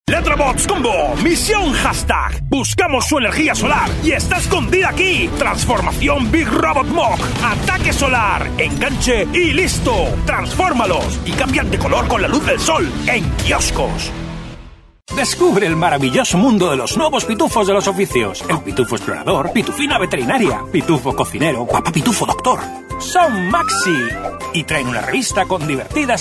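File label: Spanish male TV commercial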